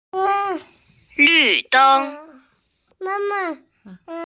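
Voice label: "lv deng", Mandarin Chinese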